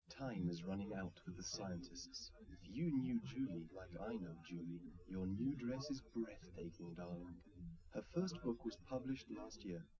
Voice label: male, live rec; SiSEC11; underdetermined